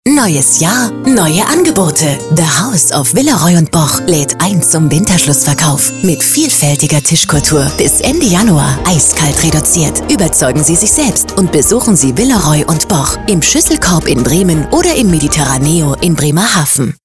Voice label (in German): Radiospot; Villeroy Und Boch Bremen